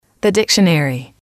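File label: "the dictionary", English, female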